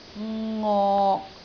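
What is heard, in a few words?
"ngok6", Cantonese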